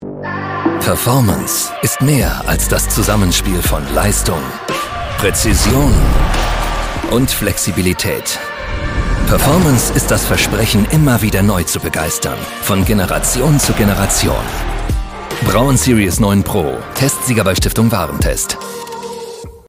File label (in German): DE Werbung; german voice actor; Braun